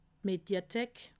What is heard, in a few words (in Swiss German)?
"mediathek", alsace